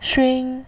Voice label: "xun1", voice